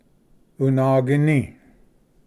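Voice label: "unagini", Cherokee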